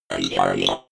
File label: robot voice recharging fx